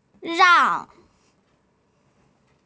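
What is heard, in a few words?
"rang4", Mandarin Chinese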